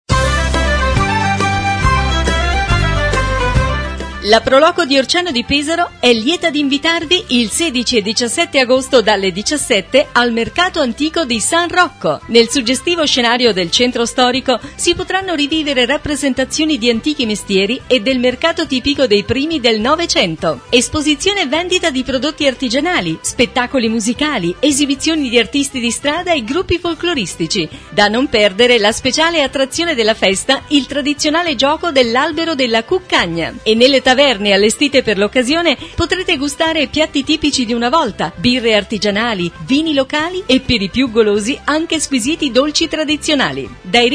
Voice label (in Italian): SPOT; MERCATO ANTICO DI SAN ROCCO; orciano 16 e 17 agosto dalle h 17:00 il mercato antico di san rocco